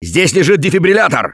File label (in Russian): defibrillator, left4dead2 russian, Survivor Voice